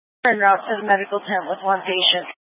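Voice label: Incident Tac 3, Scanner audio recording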